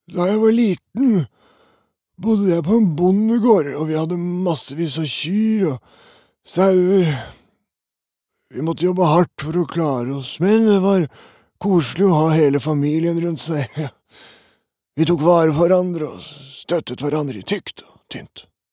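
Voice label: no dub old man, Norwegian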